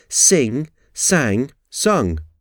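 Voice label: "sing sang sung", English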